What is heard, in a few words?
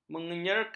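"menyerkap", Malay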